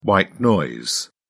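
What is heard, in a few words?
"white noise", British English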